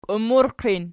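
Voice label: "kiummurqin" nominative, Archi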